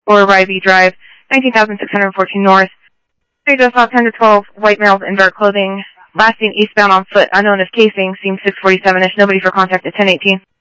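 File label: Phoenix police department, scanner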